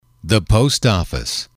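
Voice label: "the post office", English, male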